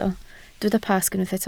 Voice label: "Pasg", Welsh